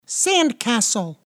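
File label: "sandcastle", English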